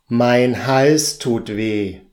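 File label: mein hals tut weh, German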